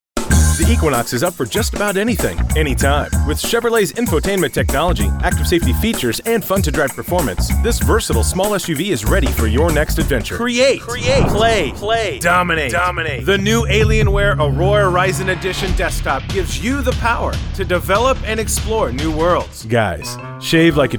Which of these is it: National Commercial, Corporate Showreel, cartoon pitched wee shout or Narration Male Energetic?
National Commercial